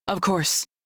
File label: Crew Accept, Female; Dialog; en; Warframe; Railjack Crew; New Loka